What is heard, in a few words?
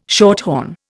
"shorthorn", Indian